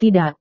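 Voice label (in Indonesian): "tidak", Indonesian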